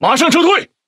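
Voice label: Speech Quick Commands Back, Chinese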